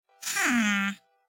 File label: high pitch; minecraft bozo